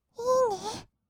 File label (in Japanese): 「いいね」, kawaii voice; 1200 kawaii voice!!; 色々なワンショット